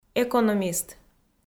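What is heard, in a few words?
"экономист", Komi, female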